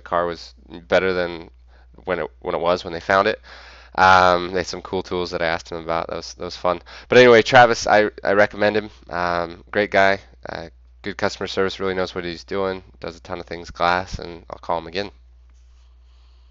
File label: windshield repair testimonial; peachtree city